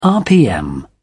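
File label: "rpm", British English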